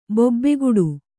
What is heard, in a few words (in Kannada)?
"ಬೊಬ್ಬೆಗೊಡು", kannada